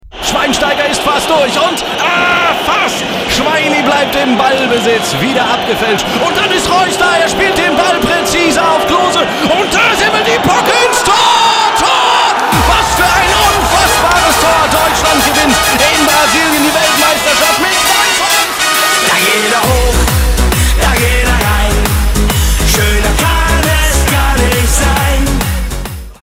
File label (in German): Fussballkommentator; Musikvideo